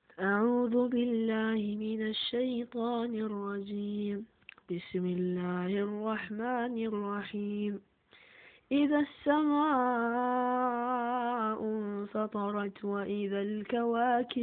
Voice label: Quran Recitation; ramadhan competition